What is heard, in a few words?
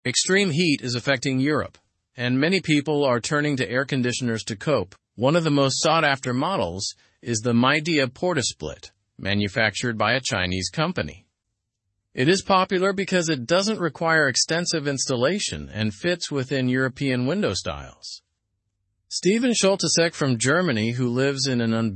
learn english through news upper intermediate; heatwaves lead europeans to chinese ac units